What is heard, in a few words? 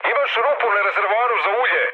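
Serbian damaged oil mood med, speech; War Thunder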